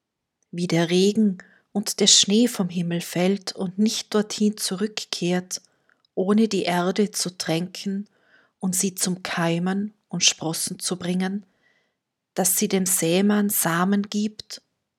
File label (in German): Lesung